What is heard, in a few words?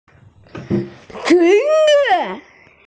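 "skirt", Mandarin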